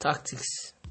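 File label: "tactics", Nigerian Pidgin, male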